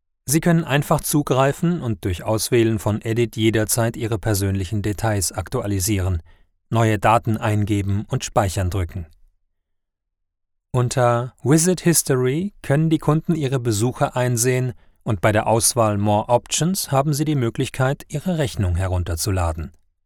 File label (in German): Coporate Video